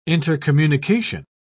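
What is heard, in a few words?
"intercommunication", English, British English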